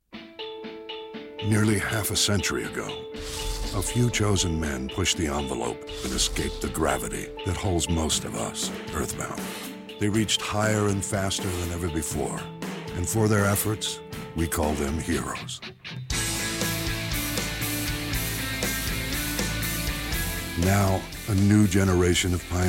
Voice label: narration